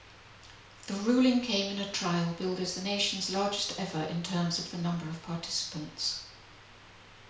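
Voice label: Sim Data room near female, reverberant environments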